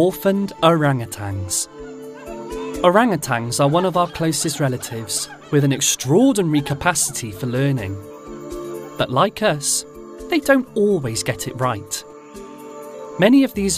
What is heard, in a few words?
Documentary, South Wales; Orangutan Jungle School